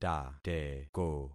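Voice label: "tateko", concatenated syllables; Affixing experiment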